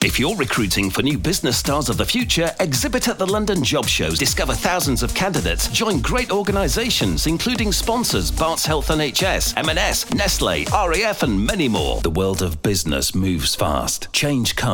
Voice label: Radio Ads